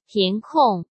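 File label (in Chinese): "填空", Mandarin; chinese flashcards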